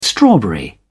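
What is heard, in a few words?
"strawberry", English, British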